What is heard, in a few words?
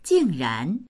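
"jing4ran2", Chinese; 竟然; なんと、ところが、意外にも; jìngrán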